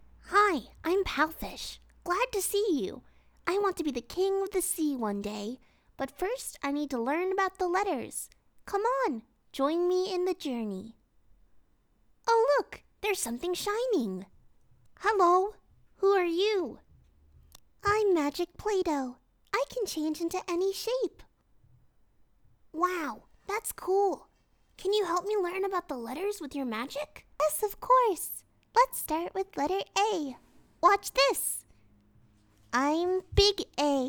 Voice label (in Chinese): 外籍女 角色 动画片 小鱼